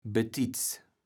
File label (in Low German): "betits", kaevels platt